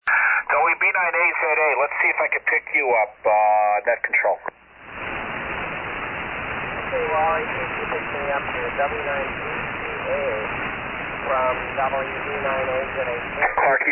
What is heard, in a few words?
Yaesu FT-101EE & FL-2100B Amp; Cottoge Grove, Wi.